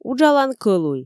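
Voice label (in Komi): "уджалан кӧлуй", Komi, female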